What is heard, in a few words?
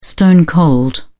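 "stone-cold", English, British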